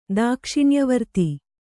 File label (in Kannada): "ದಾಕ್ಷಿಣ್ಯವರ್ತಿ", kannada